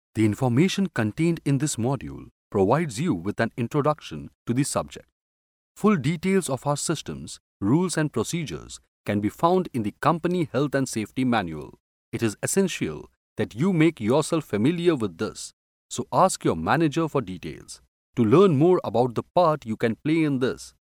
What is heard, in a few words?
male indian voice over narrative